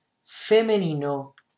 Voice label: "FEMALE", SPANISH